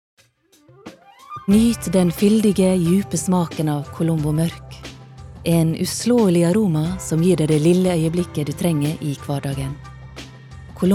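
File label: Norwegian soft sell sunnmore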